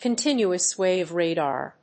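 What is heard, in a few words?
"continuous wave radar", English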